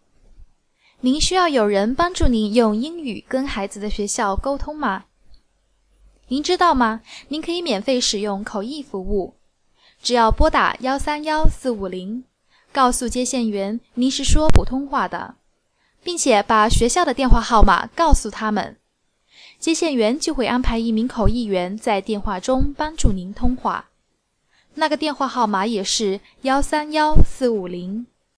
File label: mandarin; public schools; going to a public school